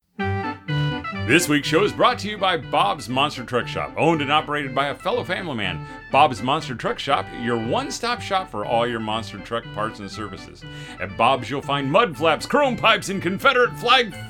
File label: commercial